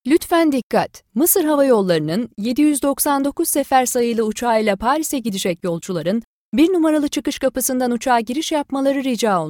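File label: Turkish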